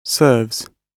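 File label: "serves", British English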